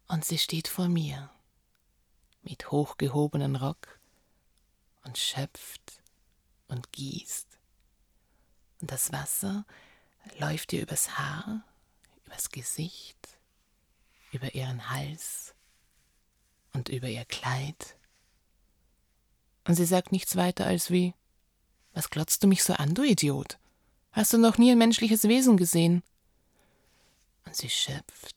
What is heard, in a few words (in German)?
Hoerbuch